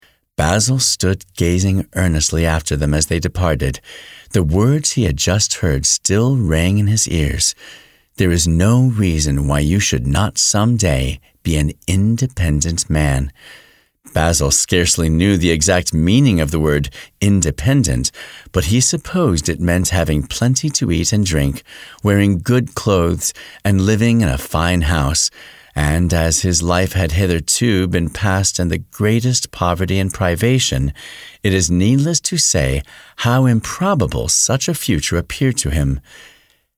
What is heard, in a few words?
Audiobook Sample; Basil or honesty and industry